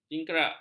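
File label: "jingkrak", Malay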